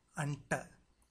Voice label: "anta", Tamil